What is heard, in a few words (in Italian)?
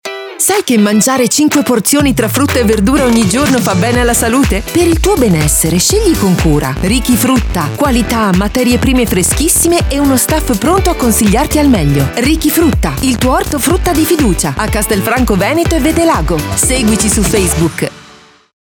grintosa